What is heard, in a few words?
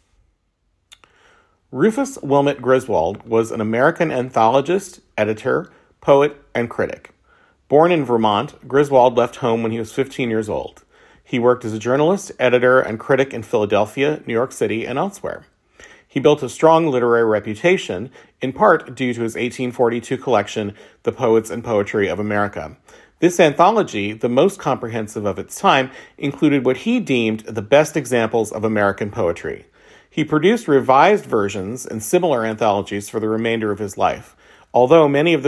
Language reel